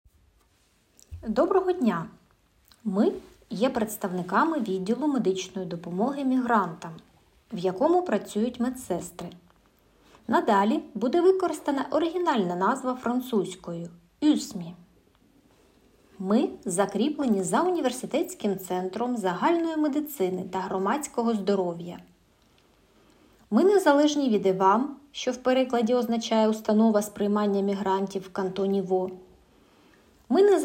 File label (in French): bande sonore ukrainien; J’ai des questions concernant ma prise en charge médicale; Informations en Ukrainien